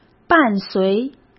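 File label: "伴随", Mandarin Chinese; hsk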